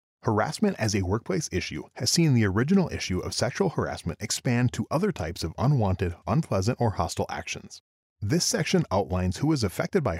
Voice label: eLearning Male; Narration, eLearning